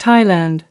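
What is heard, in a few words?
"Thailand", English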